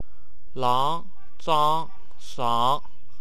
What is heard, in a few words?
"aong", wu chinese